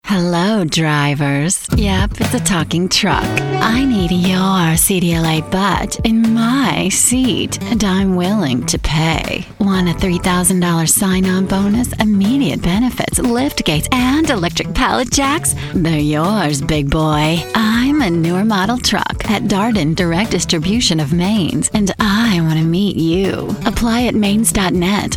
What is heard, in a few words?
Sultry Playful